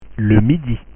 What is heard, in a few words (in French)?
"le midi", French